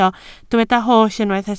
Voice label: "holl", Welsh

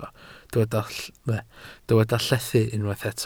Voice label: "llethu", Welsh

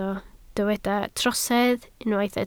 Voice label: "trosedd", Welsh

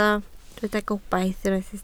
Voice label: "gobaith", Welsh

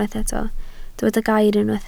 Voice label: "gair", Welsh

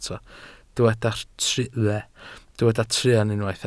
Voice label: "truan", Welsh

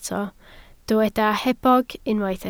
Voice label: "hebog", Welsh

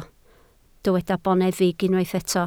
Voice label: "boneddig", Welsh

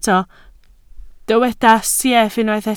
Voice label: "sieff", Welsh

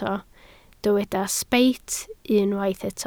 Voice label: "sbeit", Welsh

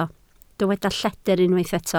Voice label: "lledr", Welsh

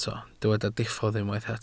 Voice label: "diffodd", Welsh

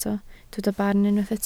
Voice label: "barn", Welsh

